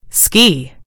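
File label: "ski", British English; gcompris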